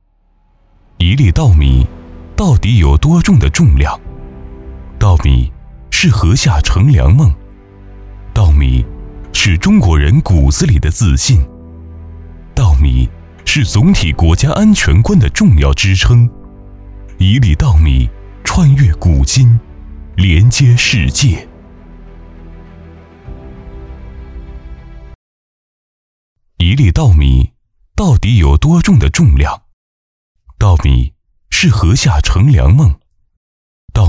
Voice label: 宣传片; 一粒稻米

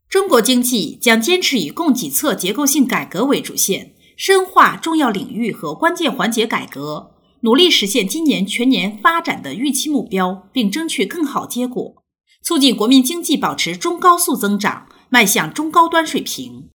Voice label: 新闻类音频

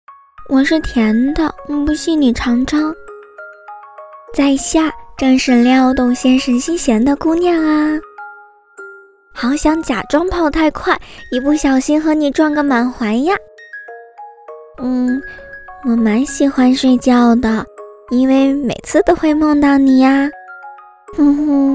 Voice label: 甜妹少女

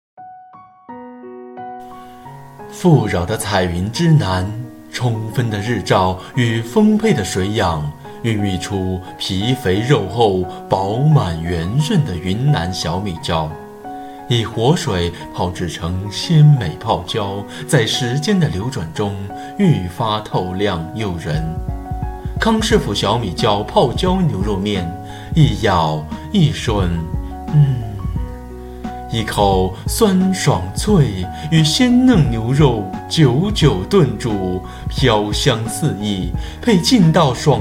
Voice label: 方便面广告; 康师傅方便面广告

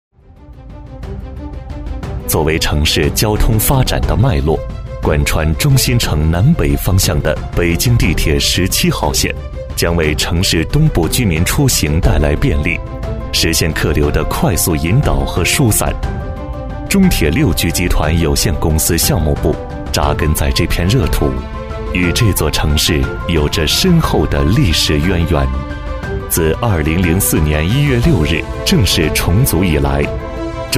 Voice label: 专题宣传浑厚大气男低音; 中铁六局

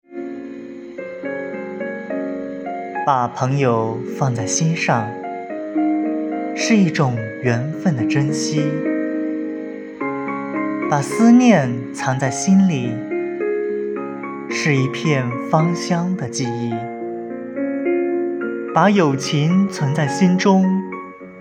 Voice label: 中英文朗读; 把朋友放在心上

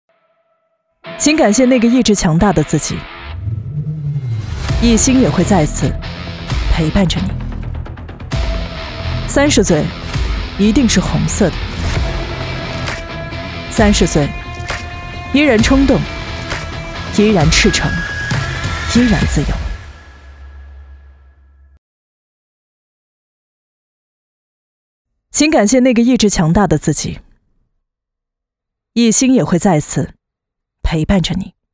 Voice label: 广告磁性成熟有力量霸气

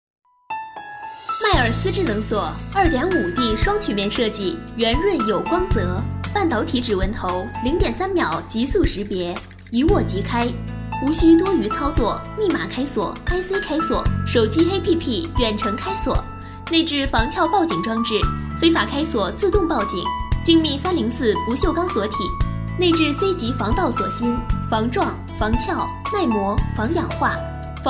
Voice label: 广告配音

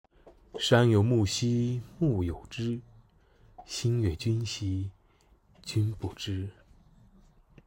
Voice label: 情感试音

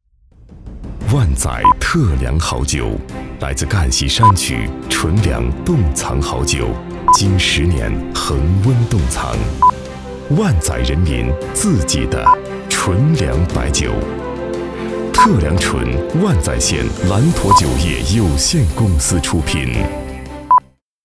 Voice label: 广告白酒; 典范

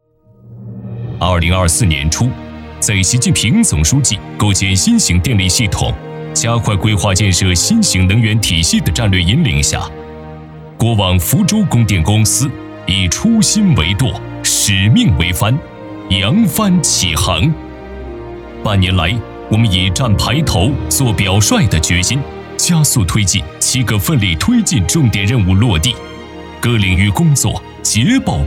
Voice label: 汇报片; 国网福州电力

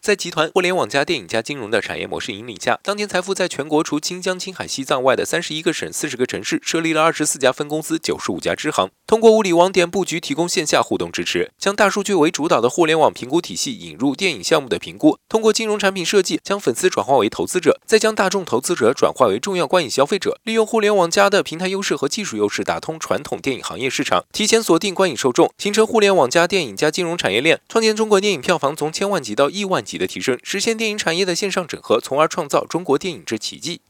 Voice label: 快嘴播报

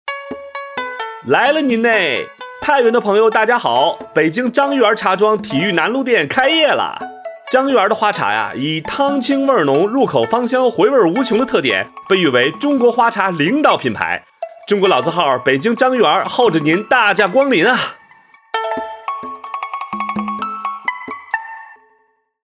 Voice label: 男声北京话茶叶广告

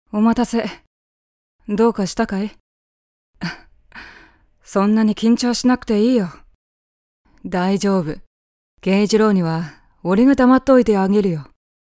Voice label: 二次元男音

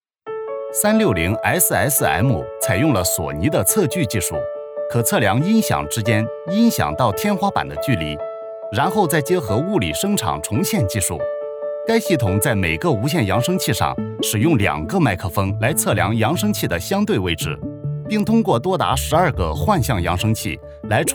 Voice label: 解说项目科技

